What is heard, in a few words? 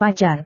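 "pacar", Indonesian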